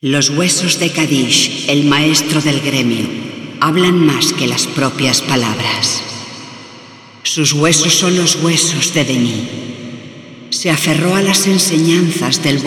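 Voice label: Yeesha Speech spanish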